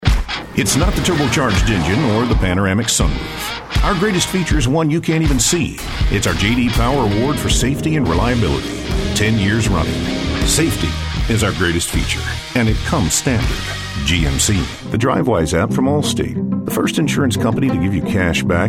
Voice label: Commercial